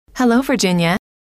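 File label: "hello virginia", female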